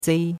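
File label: "zei1", Chinese; Cours-de-Chinois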